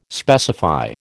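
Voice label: "specify", American English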